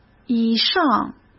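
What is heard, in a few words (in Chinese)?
"以上", Mandarin Chinese; hsk; chinese-flashcards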